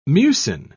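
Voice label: "mucin", British English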